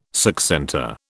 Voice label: "succentor", British

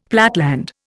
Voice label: "platteland", Indian; Dictionary

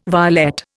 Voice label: "violet", Indian

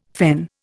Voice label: "fen", Indian

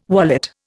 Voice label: "wallet", Indian

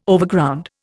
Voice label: "Overground", Indian

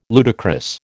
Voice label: "ludicrous", American

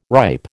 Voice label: "ripe", American

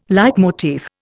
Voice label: "leitmotif", Indian

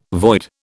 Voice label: "void", British

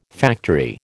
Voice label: "factory", American

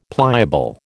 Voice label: "pliable", American

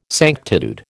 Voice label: "sanctitude", American

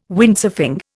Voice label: "Windsurfing", Indian

